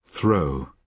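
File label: "throw", British English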